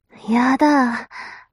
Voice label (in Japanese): mob女子台詞